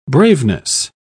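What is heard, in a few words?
"braveness", British English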